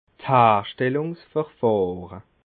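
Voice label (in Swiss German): "harschtellungsverfahre", Alsatian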